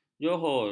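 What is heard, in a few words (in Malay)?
"johor", Malay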